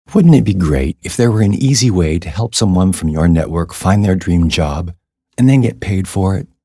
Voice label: Radio publicity